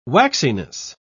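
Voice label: "waxiness", British English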